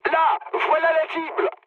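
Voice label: near waypoint, mood medium, French; War Thunder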